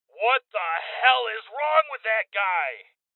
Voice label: Voices Male; Rebel Cops

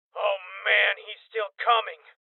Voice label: Heavy Hit, Male Young; rebel cops 2019 gamerip

